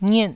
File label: "ngiet4", taiwanese syllables